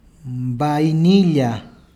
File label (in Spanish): vainilla, Peru Cuzco